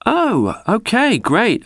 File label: "oh okay great", expletives